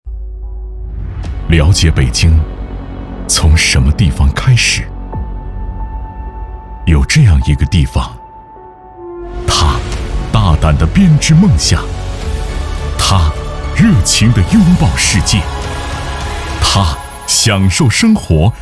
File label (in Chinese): 男 开篇大气风格; 《与北京-共奔赴》